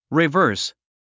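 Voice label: "reverse", English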